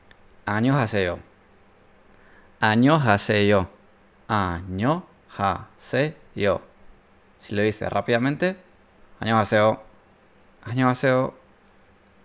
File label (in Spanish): Hola Formal coreano